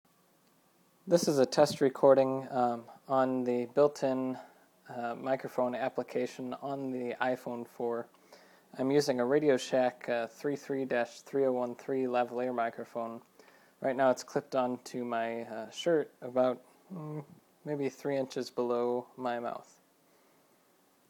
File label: iphone external lav